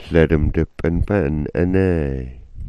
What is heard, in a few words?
"anaay", smalgyax